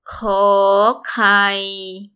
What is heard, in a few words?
koor kaii, Thai